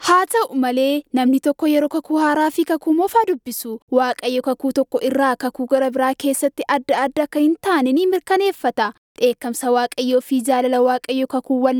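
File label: Oromo, female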